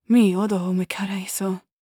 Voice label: worried

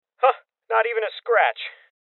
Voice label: Voices Armor Shot Male Young; Rebel Cops

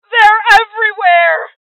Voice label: Voices HeavyLosses Female; rebel cops 2019 gamerip

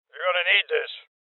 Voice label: Voices Throw Clip Male Old; Rebel Cops

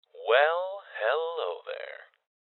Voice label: Loot Spotted Male; Voices LootSpotted Male

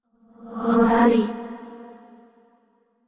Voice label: neutral short; yamiko

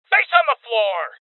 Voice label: Voices Order To Surrender Male

